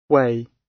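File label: "weigh", British English; The arts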